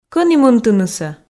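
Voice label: "кӧні мынтынысӧ", Komi, female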